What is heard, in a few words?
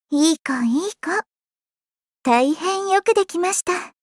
Voice victory